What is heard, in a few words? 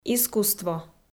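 "искусство" Komi, female; audio dict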